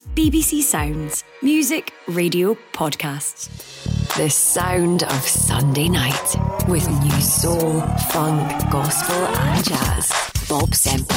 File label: imaging